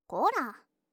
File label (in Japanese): こら, 目覚ましボイス